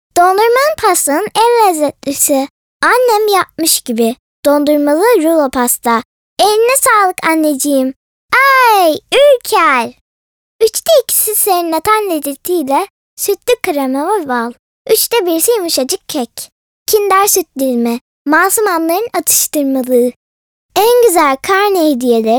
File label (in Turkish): Reklam